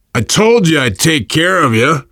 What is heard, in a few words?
you are welcome, voice, biker